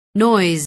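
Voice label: "noise", English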